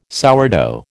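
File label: "sourdough", American English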